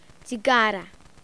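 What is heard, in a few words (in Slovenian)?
"cigara", Slovenian; tdegames; ktuberling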